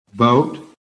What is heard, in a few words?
"BOAT", american